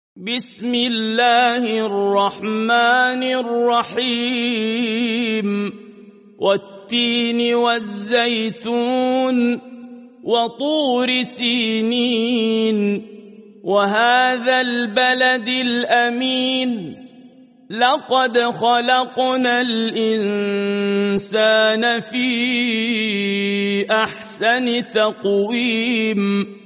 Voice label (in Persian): تلاوت ترتیل سوره تین